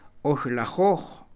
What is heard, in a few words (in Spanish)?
treinta, Mam; Números; INALI